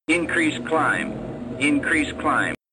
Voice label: Male Increase Climb